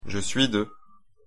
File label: pronunciation fr je suis de; ch 1 je suis